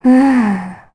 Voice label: Deny, kr, voices; heroes; Rehartna; kings raid

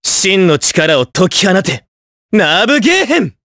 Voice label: Vox Skill jp; Evan; kingsraid

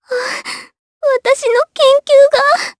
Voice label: Vox Dead, jp; kingsraid

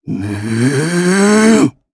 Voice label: Casting, jp; Shakmeh; kingsraid; voices heroes

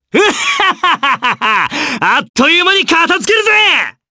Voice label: Vox Skill jp; kingsraid

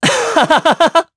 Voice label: Happy, jp; heroes; Zafir; kingsraid

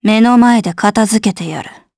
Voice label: Vox Skill, jp; Valance; kingsraid

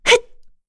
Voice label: Attack, kr; voices; heroes; Yuria; kingsraid

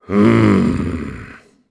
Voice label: Vox Deny, jp; Kaulah; kings raid

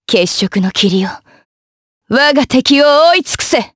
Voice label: Vox Skill jp; Lewsia; kings raid

